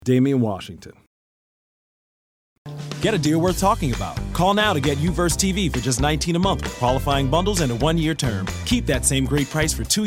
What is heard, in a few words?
commercial; Innovative LA